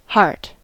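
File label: "heart", American English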